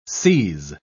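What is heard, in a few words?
"seise", British English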